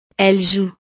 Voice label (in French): "elles jouent", frances